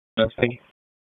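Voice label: Raleigh Police Southwest District Dispatch; wake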